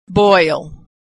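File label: "boil", American English; vowel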